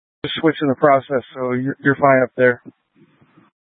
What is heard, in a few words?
Light Rail Emergency, scanner